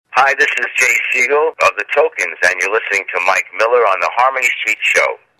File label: promo